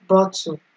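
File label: "bottle", Nigerian Pidgin, female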